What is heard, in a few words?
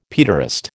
"paederast", American